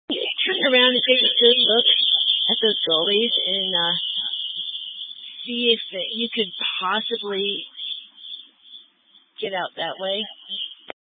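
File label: Incident Tac 3, Scanner audio recording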